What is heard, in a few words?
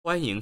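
"huan1 ying2", Chinese, male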